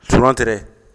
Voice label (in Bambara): "sulantere", Bambara; animaux; Mots Bambara